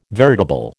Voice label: "veritable", American